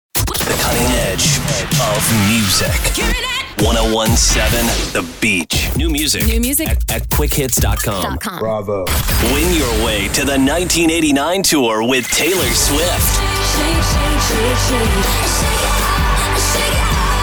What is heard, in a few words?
radio chr, radio imaging